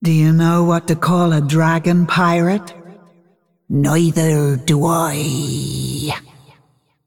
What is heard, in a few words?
Female Dragon PIRATE DAY